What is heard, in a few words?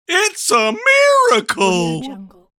male comical its a miracle